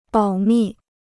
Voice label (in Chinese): "保密", Chinese